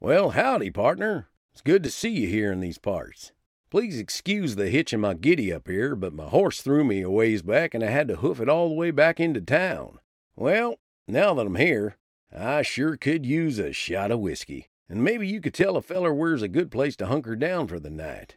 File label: Texan; Voice, Acting & Singing samples